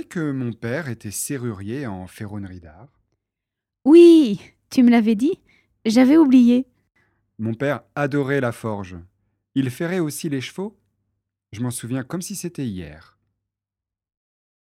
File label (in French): dialogue fer a cheval